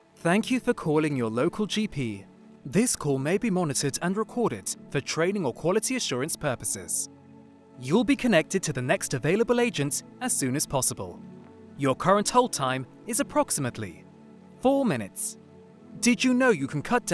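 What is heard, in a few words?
interactive voice response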